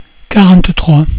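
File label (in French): "quarante-trois", French; cat numeration doigts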